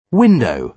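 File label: "window", British English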